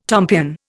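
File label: "tomtit", Indian; Dictionary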